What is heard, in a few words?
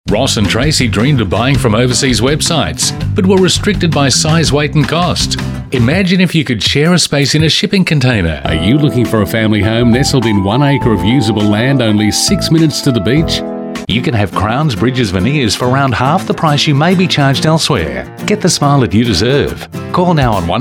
male talent retail